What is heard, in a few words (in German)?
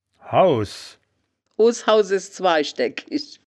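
"haus", wittlicher woerterbuch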